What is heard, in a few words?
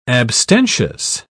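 "abstentious", British English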